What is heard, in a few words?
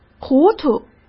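"糊涂", Mandarin Chinese; hsk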